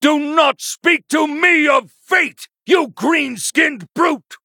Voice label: Male Human; Uther